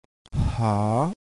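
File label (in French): "siia", ton montant, thailandaise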